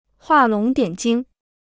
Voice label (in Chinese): "画龙点睛", Chinese